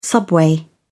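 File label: "subway", British English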